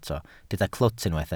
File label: "clwt", Welsh